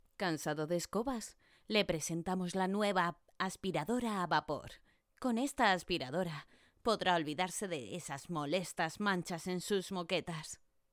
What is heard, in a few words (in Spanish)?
comercial